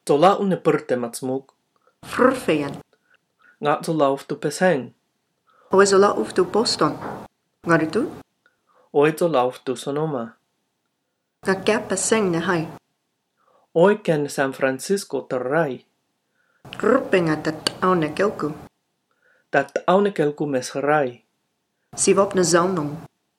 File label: Dialog